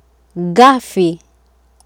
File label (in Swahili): "ghafi" Swahili